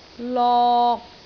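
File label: "lok3", Cantonese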